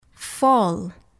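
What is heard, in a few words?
"fall", English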